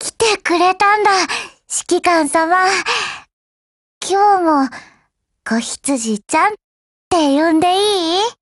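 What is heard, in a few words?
HELLO Japanese, Voice; GF Alarm; Falcon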